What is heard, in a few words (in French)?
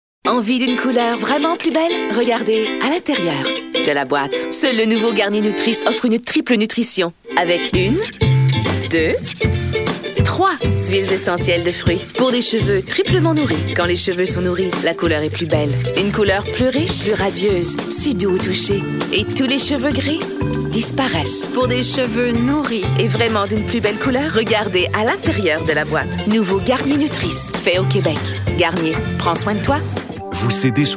demo voix